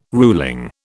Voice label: "ruling", British